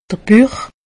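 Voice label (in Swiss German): "buch", Haut Rhin; medecine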